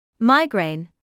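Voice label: "migraine", British English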